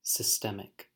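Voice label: "systemic", US English; pronunciation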